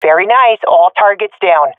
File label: enemies left none, swat fem; vo; Counter Strike Model Pack; CS:GO